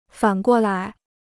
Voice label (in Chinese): "反过来", Chinese